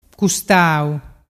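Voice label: "custau", Vernazzese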